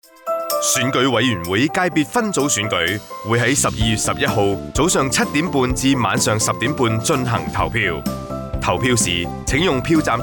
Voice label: radio voting